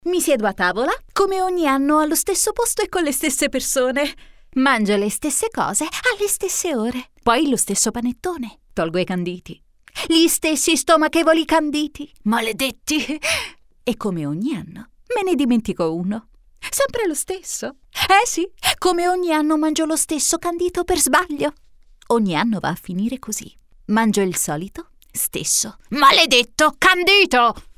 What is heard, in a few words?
italian narration